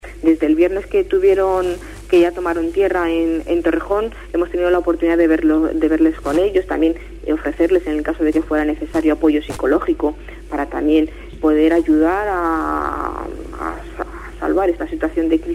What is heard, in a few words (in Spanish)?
noticias